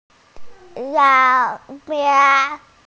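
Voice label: "jie3 mei4", Mandarin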